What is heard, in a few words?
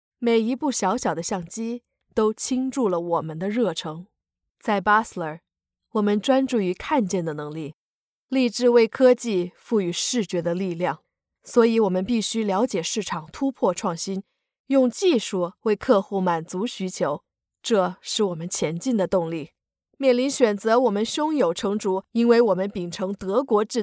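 Mandarin Chinese, female, voice over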